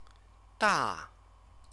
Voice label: "da", Chinese; big; stative verb